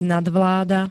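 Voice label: "nadvláda", Slovak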